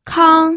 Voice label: "kang1", pinyin

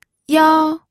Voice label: "yao1", pinyin

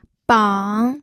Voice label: "bang3", pinyin

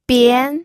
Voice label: "bian2", pinyin

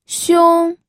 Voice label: "xiong1", pinyin

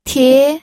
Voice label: "tie2", pinyin

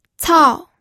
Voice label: "cao4", pinyin

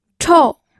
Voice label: "chou4", pinyin

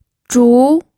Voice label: "zhu2", pinyin

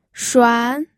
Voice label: "shuan2", pinyin

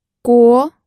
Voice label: "guo2", pinyin audio

